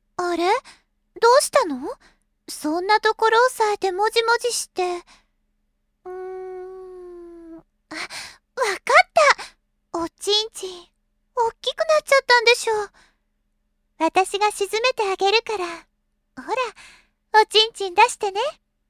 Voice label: ane, milk voice, adult; freezing